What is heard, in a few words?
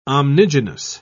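"omnigenous", English, British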